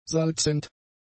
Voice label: "salzend", german; adjectives